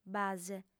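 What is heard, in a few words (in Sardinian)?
"base", female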